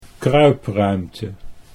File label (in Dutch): "kruipruimte", Dutch